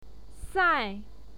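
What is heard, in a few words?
"sai4", Mandarin Chinese pinyin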